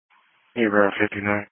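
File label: Police Patrol Dispatch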